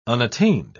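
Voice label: "unattained", British English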